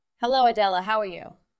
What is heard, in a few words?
VoiceActing es